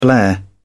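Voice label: "blare", British English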